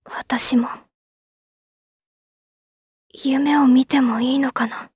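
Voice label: vox dialogue, voices, Japanese; Tanya; kingsraid audio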